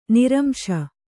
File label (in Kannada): "ನಿರಂಶ", Kannada